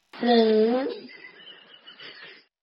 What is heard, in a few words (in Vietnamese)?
Nín... meme sound effect, Tải âm thanh Nín... em bé nói meme sound effect